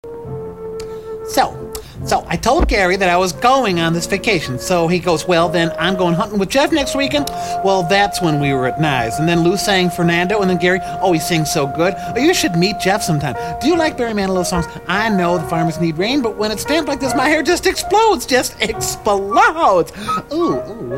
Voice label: conversation